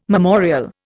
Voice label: "memorial", Indian